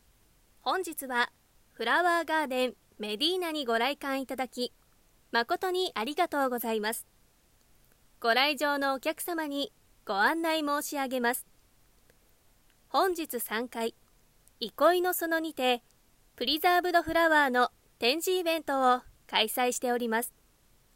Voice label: 来館アナウンス

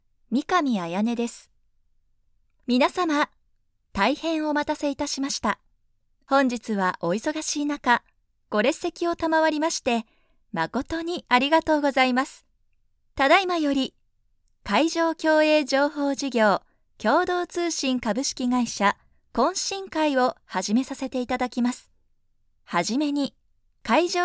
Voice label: MC ナレーション; ナレーター｜MC｜リポーター

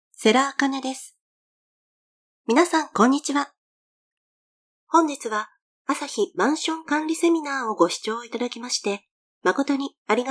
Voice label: セミナー司会

